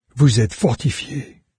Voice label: fortified, fr, NARRATOR; Fate